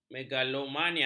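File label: "megalomania", Malay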